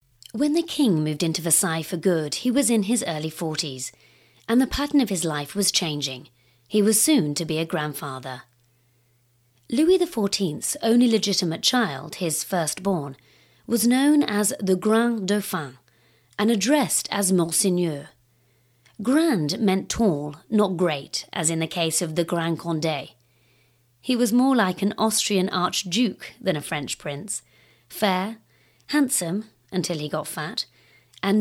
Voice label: Narration; Grand Dauphin; Vocal Point

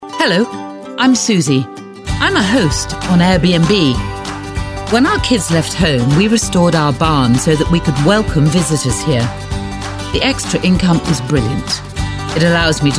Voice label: Commercials

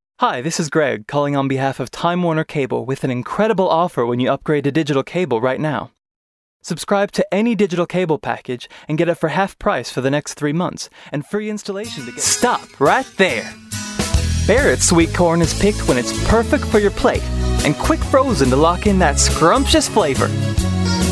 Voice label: Commercials

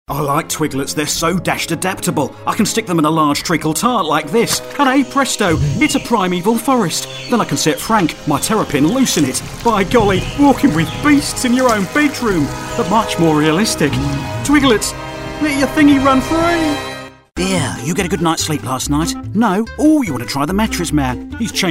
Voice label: Character Commercials

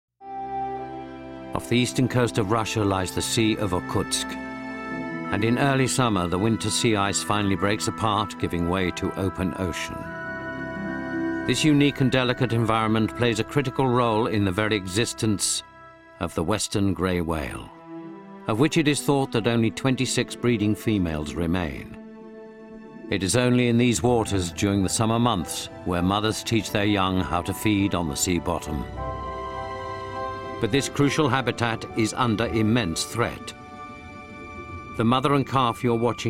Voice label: Narration; Empty Planet; Vocal Point

